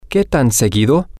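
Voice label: how often, Spanish, male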